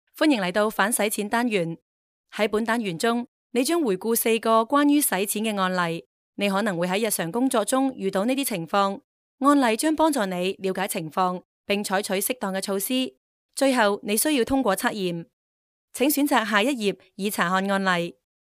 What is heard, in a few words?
female cantonese voice over narrative